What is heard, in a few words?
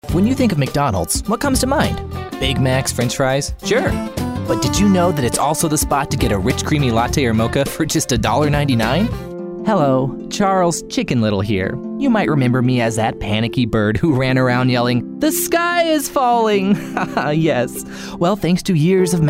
Commercial